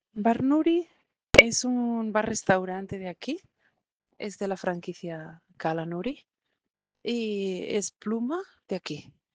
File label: Voice message